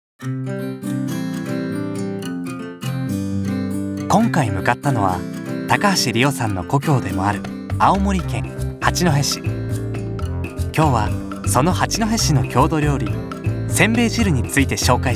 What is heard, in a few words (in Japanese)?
ナレーション; せんべい汁て知ってる？; グリーンノート